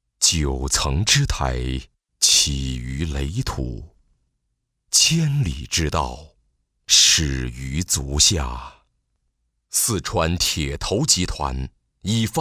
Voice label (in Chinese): 宣传片, 沉稳; 四川铁投